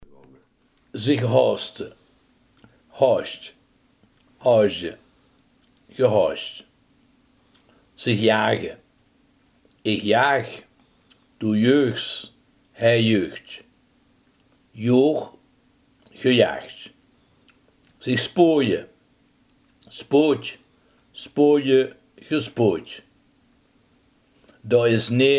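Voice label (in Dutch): haasten, Limburgse gesproken woordenboeken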